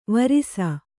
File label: "ವರಿಸು", Kannada